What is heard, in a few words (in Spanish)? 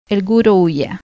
vocal u frase cuba, pinar del rio femenina; spansk; fonetica